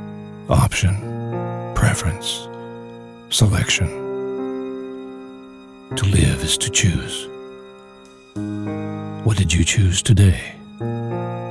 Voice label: male american english choices comercial; ideasonora